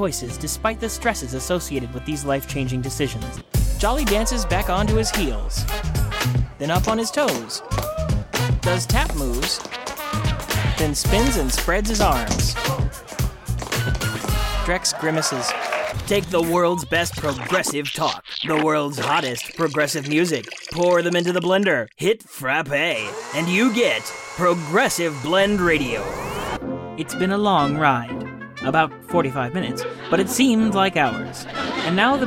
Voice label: Commercial Demo